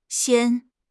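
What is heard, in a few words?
"xian1", Mandarin Chinese; HSK3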